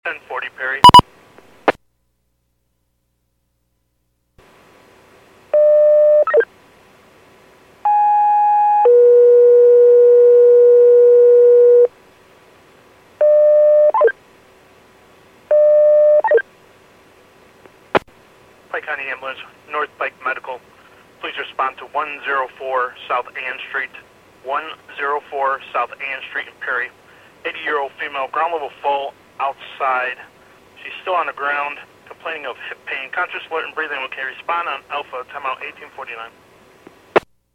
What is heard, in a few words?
PAGING